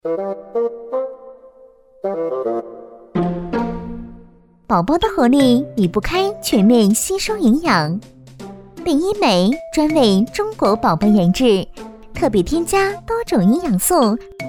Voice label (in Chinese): 品牌广告; 贝因美